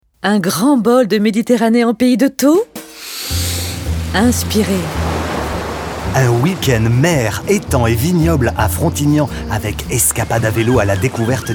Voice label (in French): spot radio; frontignan; fb toulouse; herault